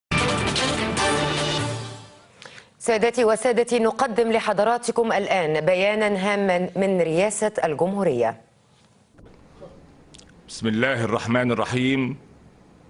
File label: Resignation Speech, arabic; Omar Suleiman; Mubarak